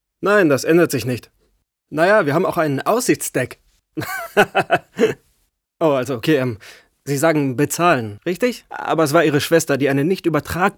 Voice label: Comedy; Synchron